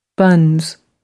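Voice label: "buns", British English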